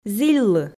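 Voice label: "зільлы", Komi, female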